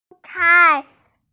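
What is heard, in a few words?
"shu1 cai4", Mandarin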